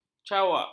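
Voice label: "cawak", Malay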